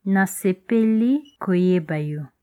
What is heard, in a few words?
meet, lingala